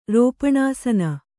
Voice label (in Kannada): "ರೋಪಣಾಸನ", Kannada